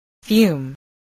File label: "fume", English voice, spelling